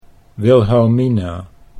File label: "Wilhelmina" Dutch